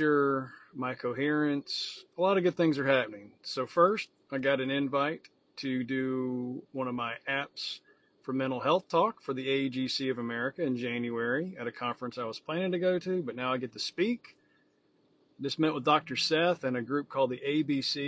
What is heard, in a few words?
Voice Note